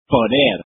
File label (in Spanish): "poder", Spanish